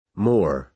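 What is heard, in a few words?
"more", American English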